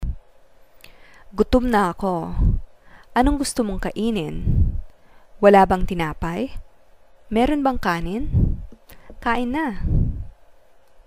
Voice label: food phrases, Tagalog